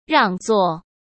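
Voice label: "让座", Mandarin Chinese; hsk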